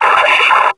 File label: alert, combine soldier; hl2